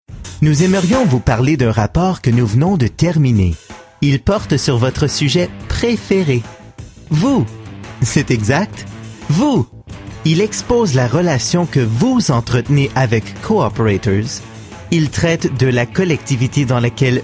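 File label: French Narration; TaDa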